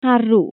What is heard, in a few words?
"DEER", welsh